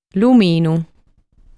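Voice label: "Luminu", Sardinian word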